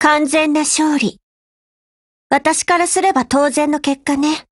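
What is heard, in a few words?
WIN, Japanese; GF Alarm; HK416Mod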